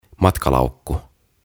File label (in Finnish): "Matkalaukku", "Suitcase"; OTAVA KONSERNI